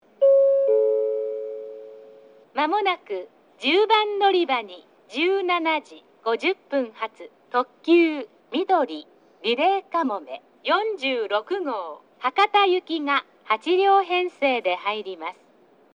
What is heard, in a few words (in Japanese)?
10番のりば接近予告放送; リレー特急; みどり・リレーかもめ46号 博多行き; takeo onsen